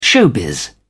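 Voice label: "showbiz", British English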